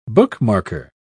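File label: "bookmarker", British English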